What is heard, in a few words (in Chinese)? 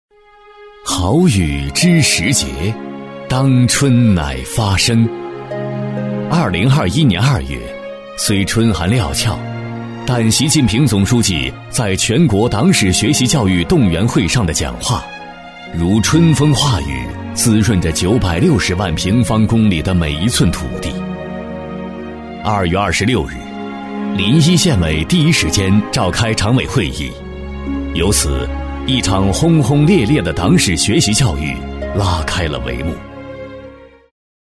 男 党政专题片 正气高端; 高端品质; 沉稳大气